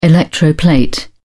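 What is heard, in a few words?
"electroplate", British English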